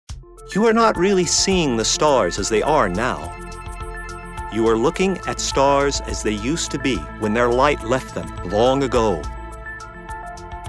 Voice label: Educational Science Program